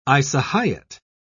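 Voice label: "isohyet", British English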